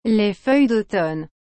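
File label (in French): "les feuilles d'automne", French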